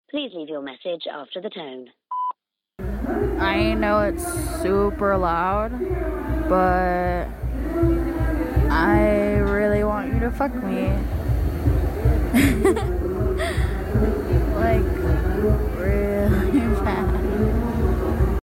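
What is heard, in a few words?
Voicemail, Tease, female; F4M; When he doesn't pick up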